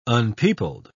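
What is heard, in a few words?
"unpeopled", British English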